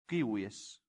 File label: "cives", latin; santillana